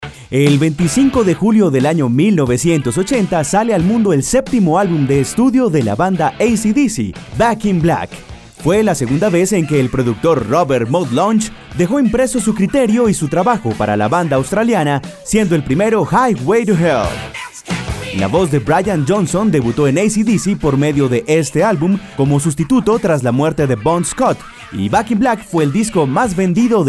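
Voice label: Colombian Spanish; generico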